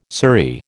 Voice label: "surrey", American